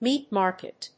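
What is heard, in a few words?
"Meat Market", English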